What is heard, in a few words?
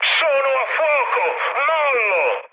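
Italian on fire mood high, speech; War Thunder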